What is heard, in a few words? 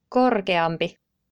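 "korkeampi", Finnish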